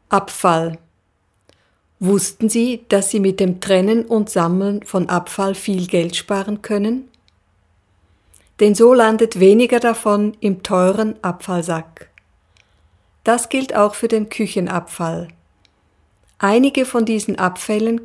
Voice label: deutsch